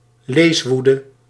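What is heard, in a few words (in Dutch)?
"leeswoede", Dutch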